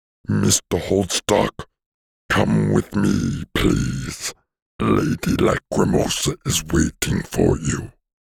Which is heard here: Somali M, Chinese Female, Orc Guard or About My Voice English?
Orc Guard